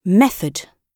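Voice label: "method", British English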